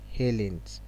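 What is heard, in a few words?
"hailings", Nigerian Pidgin, male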